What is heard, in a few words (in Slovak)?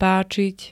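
"páčiť", Slovak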